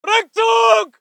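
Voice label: voice german infantry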